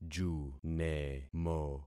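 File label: "junemo", concatenated syllables; Affixing experiment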